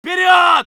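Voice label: voice soviet infantry; Red Orchestra 41-45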